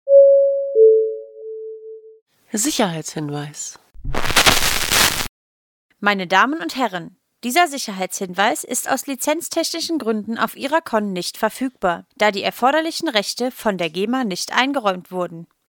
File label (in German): durchsage